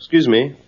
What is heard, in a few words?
"excuse me", Voice; expletives